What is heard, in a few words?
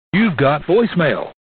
Voice Mail